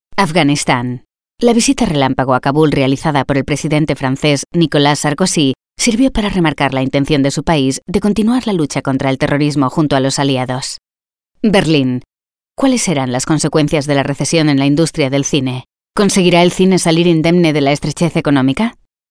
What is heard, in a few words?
Spanish Castilian